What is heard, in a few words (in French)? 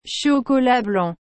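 "chocolat blanc", French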